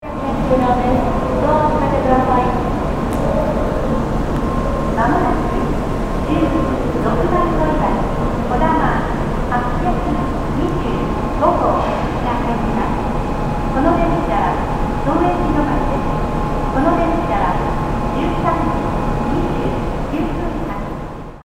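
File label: station announcement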